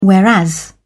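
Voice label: "whereas", British English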